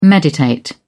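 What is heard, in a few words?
"meditate", English, British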